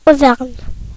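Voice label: "auvergne", French; france region; gcompris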